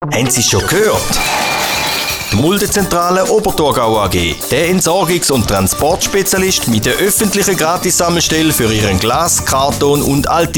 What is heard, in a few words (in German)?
radiospot